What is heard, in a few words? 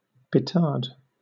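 "petard", British English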